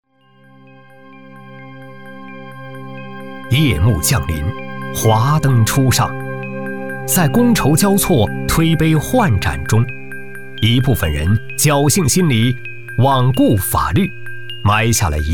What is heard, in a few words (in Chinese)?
男 警示教育 醉后驾车; 罪悔莫及